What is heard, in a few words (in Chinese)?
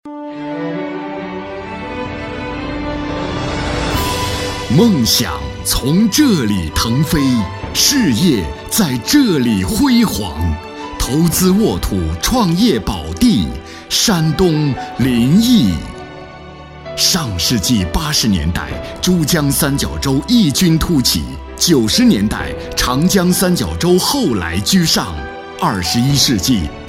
城市专题片, 大气激昂; 临沂